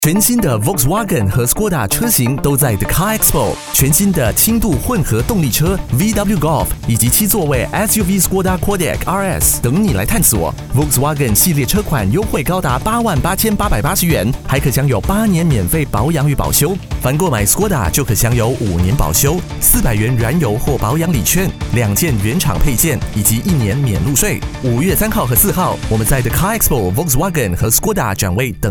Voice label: Mandarin; CAR EXPO 2025